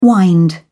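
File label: "wind up", British English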